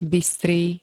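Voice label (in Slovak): "bystrý", Slovak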